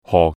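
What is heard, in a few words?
"ho4" Cantonese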